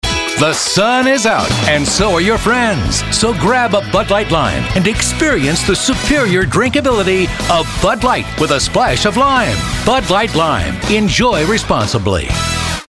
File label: upbeat